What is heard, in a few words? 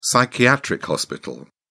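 "psychiatric hospital", British English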